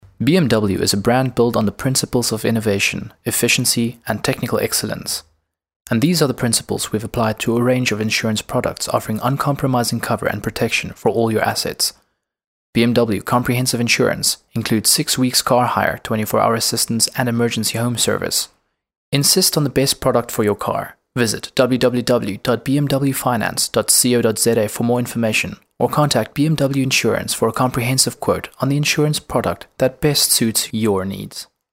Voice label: corporate

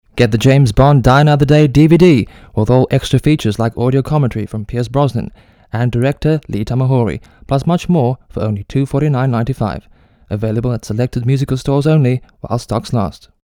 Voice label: hard sell

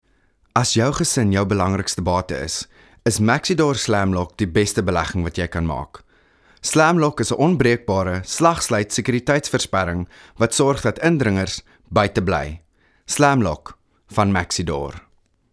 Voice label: hard sell afr

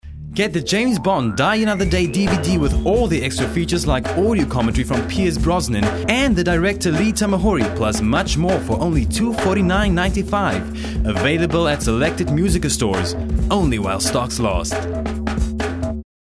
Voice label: english

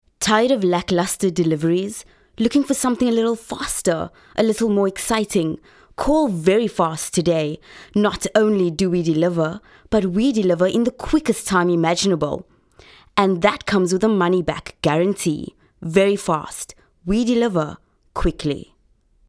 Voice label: hard sell

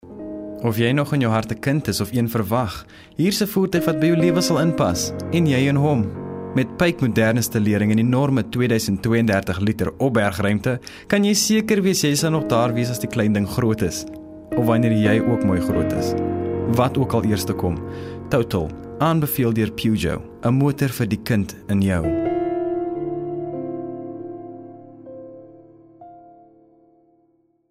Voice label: afrikaans

